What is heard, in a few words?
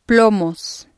"plomos", Spanish